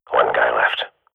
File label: radio bot kill count; Counter Strike Model Pack; CS:GO